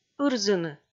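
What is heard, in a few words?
"ырзыны", Komi, female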